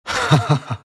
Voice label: happy; Skywrath Mage